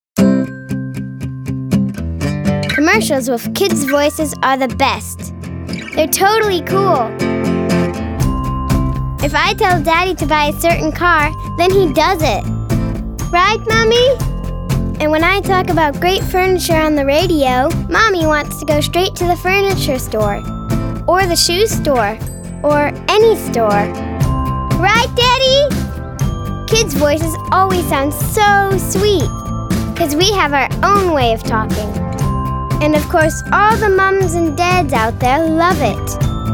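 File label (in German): Werbung Kinderstimmen, English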